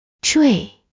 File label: "zhui4", Chinese; 挂坠盒; guà zhuì hé; locket; gua4 zhui4 he2; 掛墜盒